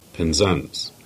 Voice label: Cornish; Penzance